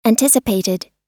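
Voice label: "anticipated" US English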